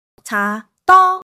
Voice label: joy; monologue